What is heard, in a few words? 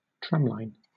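"tramline", British English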